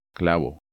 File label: "Clavo", Spanish